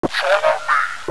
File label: follow me, zombie male